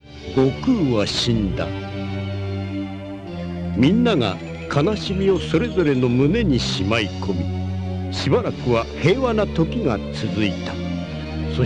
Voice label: narrator; dragon ball z tv special 2